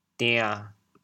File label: "dian7", chaoyang